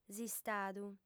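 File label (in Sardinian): "s'istadu", Sardinian, female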